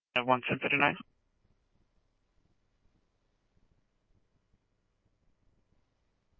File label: scanner, Scottsdale Police